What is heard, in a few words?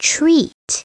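"treat", English; SoftKey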